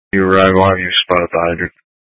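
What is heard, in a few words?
Incident Tactical 1, Scanner audio recording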